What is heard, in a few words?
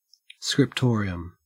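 "scriptorium", Australian English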